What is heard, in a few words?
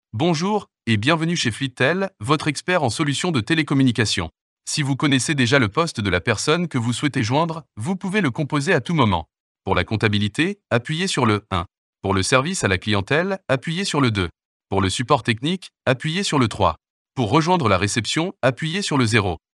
NATURAL VOICE